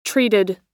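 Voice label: "treated", American English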